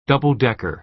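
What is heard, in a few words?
"double-decker", American English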